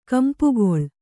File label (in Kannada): "ಕಂಪುಗಾಳಿ", kannada